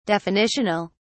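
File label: "definitional", British English, dictionary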